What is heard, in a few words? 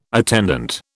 "attendant", British